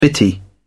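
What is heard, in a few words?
"bitty", British English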